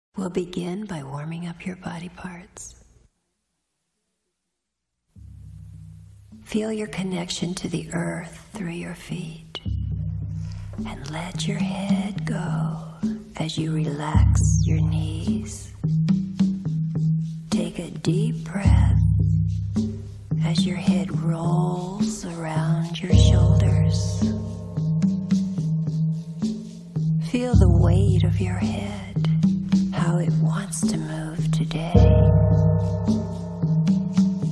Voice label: 5 rhythms dance guided version; guided waves dance